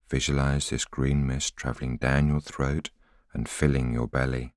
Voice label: voiceover